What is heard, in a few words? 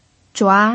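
"zhua2", Mandarin Chinese pinyin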